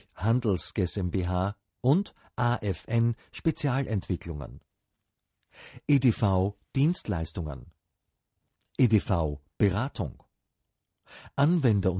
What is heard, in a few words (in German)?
Inserat; Handshake; Der Durchblick 2019